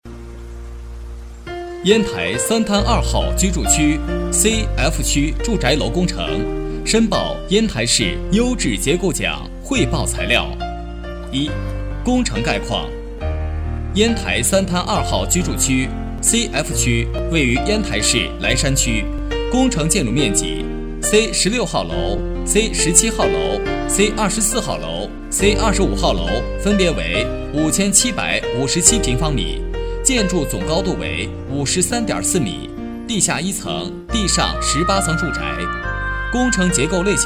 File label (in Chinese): 男 烟台居住区工程报告（年轻）